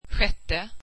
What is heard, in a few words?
swedish sixth